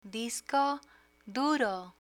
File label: "disco duro", Spanish